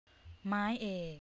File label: "maieak", thai, tones; วรรณยุกต์